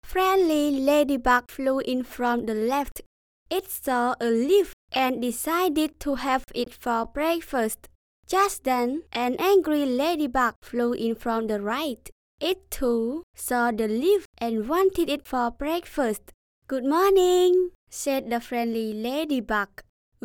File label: Female English; Cambodia